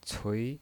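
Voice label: "cui", Mandarin Chinese pinyin voice, second tone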